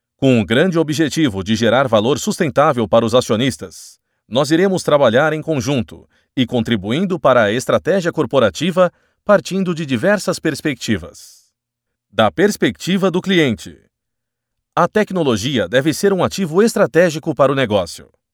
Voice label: locutor portugues brazilian voiceover, locutor brasileiro